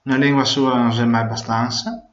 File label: one language, Venetian